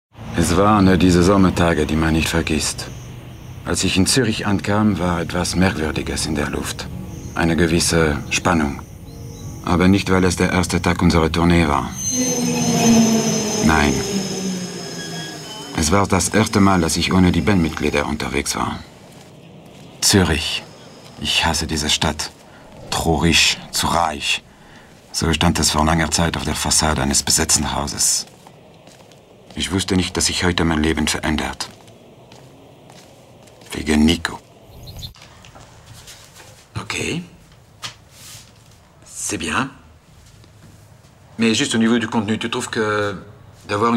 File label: Synchro Deutsch; synchron